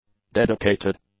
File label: "dedicated", english; adjectives